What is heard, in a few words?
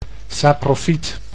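"saprofyt", Dutch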